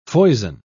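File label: "foison", British English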